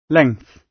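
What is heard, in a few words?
"length", British English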